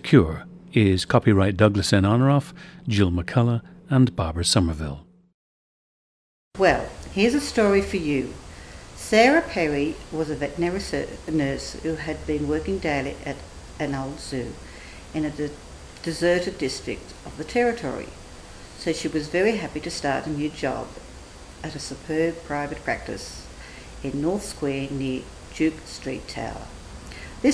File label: australia